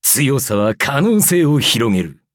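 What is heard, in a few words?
levelup, Japanese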